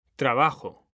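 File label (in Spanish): "trabajo", Spanish; mekanta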